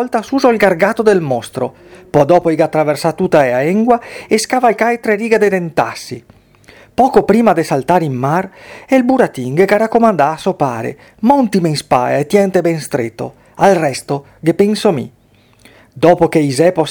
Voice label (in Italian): Pinocchio Veneziano